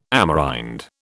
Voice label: "Amerind", British